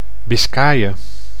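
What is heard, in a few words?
"Biskaye", Dutch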